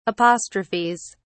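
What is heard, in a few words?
"apostrophes", British English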